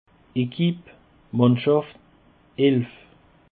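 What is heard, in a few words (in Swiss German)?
"equip", Bas Rhin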